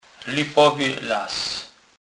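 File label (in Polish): "lypowy las", Kurpie dialect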